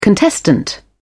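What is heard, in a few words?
"contestant", British English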